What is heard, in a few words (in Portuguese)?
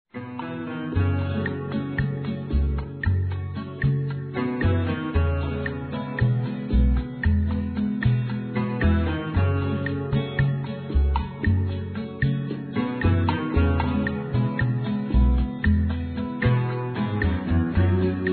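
Namorados Com Aniv de Namoro, female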